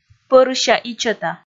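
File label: "пӧрыся-ичӧта", Komi, female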